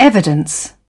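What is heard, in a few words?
"Evidence", British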